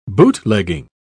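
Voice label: "bootlegging", British English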